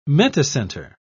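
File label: "metacenter", English, British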